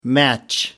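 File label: "match-up", British English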